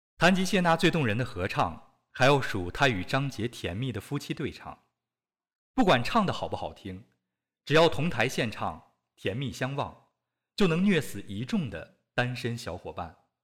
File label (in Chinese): 演绎类